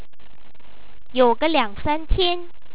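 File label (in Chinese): "you ge liang san tian", Chinese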